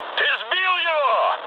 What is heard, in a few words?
player killed enemy, mood med, speech ru; War Thunder